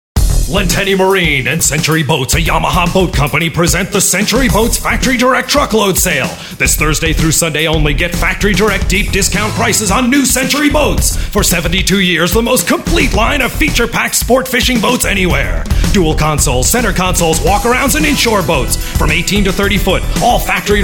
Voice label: Radio; Century Boats; Yamaha